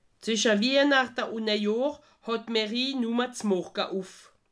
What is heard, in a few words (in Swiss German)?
"mairie morga", alsace